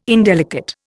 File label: "indelicate", Indian